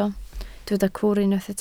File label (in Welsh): "cwr" Welsh